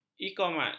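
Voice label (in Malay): "iqamat", Malay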